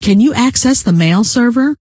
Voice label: rant, scientist female